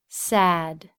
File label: sad